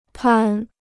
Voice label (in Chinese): "攀", Chinese